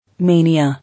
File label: "Mania", English